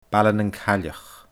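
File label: "Baile nan Cailleach", Scottish Gaelic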